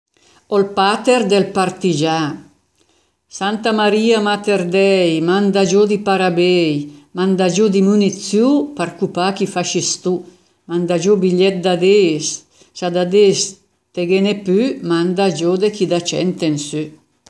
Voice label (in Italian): Dialetto di Albosaggia; Pater del partigiáa